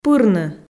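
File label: "пырны", Komi, female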